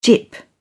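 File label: "gyp", British English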